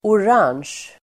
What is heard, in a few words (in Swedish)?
"orange", Swedish